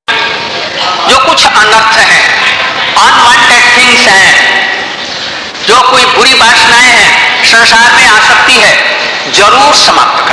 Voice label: Hindi class; Jaipura; Radha Govinda temple; about weak heart